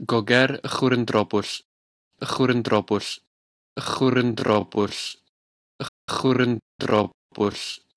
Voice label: Llanfairpwllgwyngyllgogerychwyrndrobwllllantysiliogogogoch Welsh pronunciation